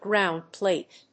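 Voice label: "ground plate", English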